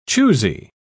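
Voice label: "choosey", British English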